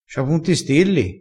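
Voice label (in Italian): "cia", dialettale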